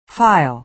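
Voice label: "file", American English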